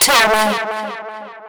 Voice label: VOICE FX, Drum Bass, 160; eJay